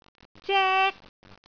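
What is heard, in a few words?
"zek1", Cantonese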